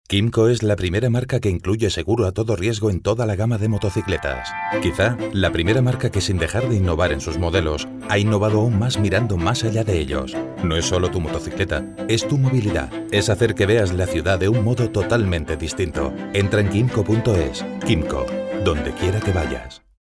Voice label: male spanish motorbike comercial; ideasonora

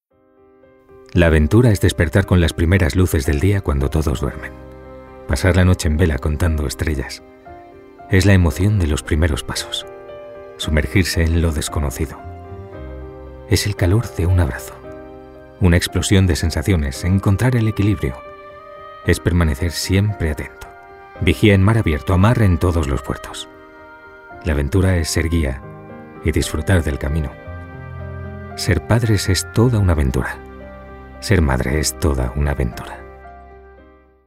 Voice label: male spanish family comercial; ideasonora

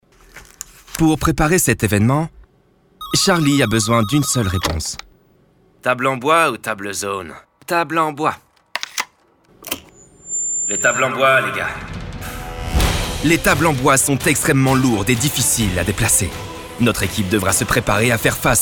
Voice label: male french comercial; tablon; ideasonora

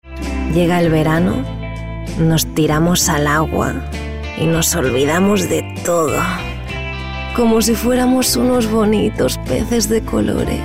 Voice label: female spanish sport brand comercial

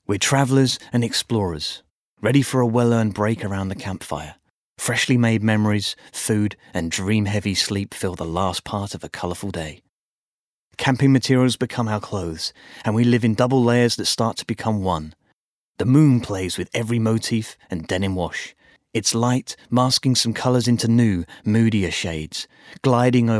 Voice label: male american english comercial

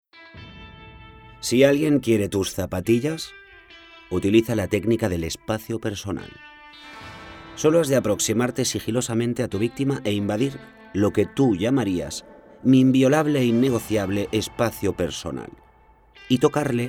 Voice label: male spanish comercial; wallmart; ideasonora

